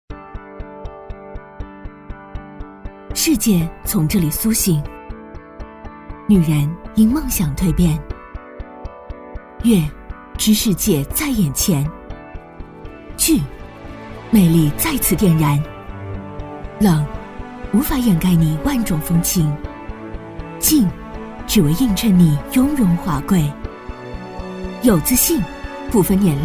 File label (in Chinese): 女 宣传片配音 高冷; 素人自然; 魅力女人风尚大赛